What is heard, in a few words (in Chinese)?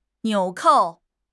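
"纽扣", Chinese; HSK6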